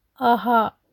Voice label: "aaha", Nepali; grade1